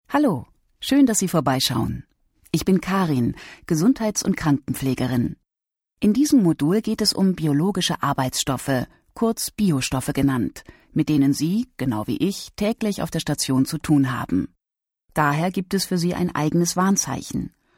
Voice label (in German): e-learning medizin